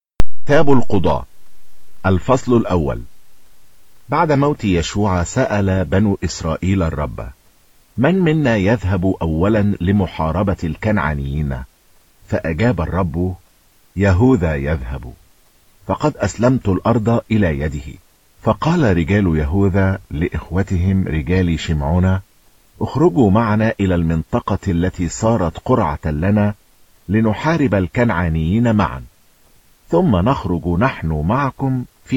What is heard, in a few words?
Arabic